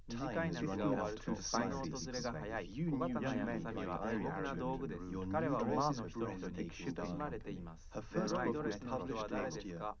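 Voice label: male, live recording, 130 ms, 5 cm, mix; SiSEC11; underdetermined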